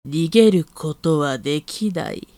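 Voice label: female; 逃げる事は出来ない; 低音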